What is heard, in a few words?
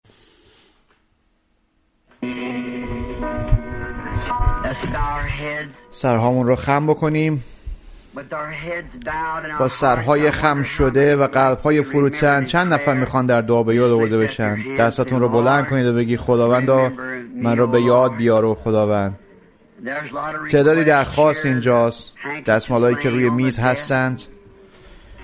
Farsi Voice Over; 3 Kinds of Beleivers